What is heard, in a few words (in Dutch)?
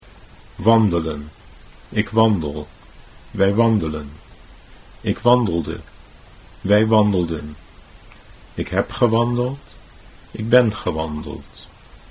wandelen ik wandel, Dutch